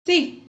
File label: yes, Spanish; Spanish page 50